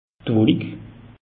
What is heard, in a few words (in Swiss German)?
"wollik", alsace; meteo